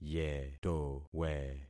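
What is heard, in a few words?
"yetowe", concatenated syllables; Affixing experiment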